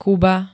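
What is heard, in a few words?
"Kuba", Slovak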